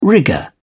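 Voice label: "rigour", British English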